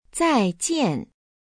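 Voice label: "zaijian", Chinese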